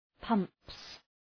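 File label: "pumps", English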